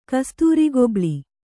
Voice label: "ಕಸ್ತೂರಿಗೊಬ್ಳಿ", kannada

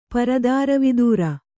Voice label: "ಪರದಾರವಿದೂರ", Kannada

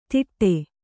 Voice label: "ತಿಟ್ಟೆ", Kannada

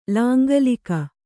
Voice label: "ಲಾಂಗಲಿಕ", Kannada

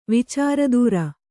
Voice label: "ವಿಚಾರದೂರ", kannada

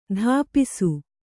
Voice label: "ತಪಿಸು", Kannada

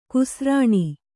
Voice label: "ಘುಸೃಣ", Kannada

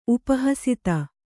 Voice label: "ಉಪಹಸಿತ", kannada

